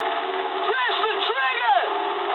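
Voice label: English attack position mood low; War Thunder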